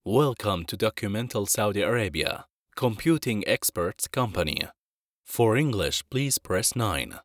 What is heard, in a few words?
english ivr